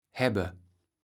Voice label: "häbbe", kaevels platt

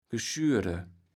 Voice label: "geschurde", kaevels platt

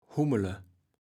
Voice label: "hummele", kaevels platt

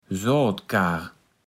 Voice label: "Soatkaar", platt

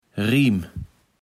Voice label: "Riem", kaevels platt

